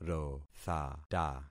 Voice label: "rothata", concat syllables; Affixing experiment

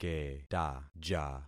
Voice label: "ketaja", concat syllables; Affixing experiment

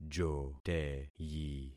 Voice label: "joteyi", concat syllables; Affixing experiment

